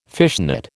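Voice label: "fishnet", American English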